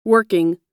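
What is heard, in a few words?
"working", US English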